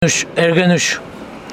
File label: Homshetsi to lay